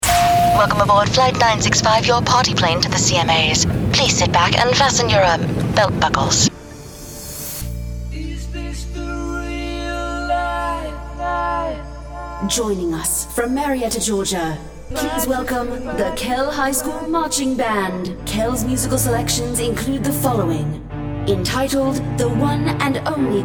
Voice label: british accent